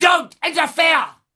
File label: Female Taunt, Cannibal, dialogue; Trunk Act One Monster